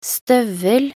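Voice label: "støvel", Norwegian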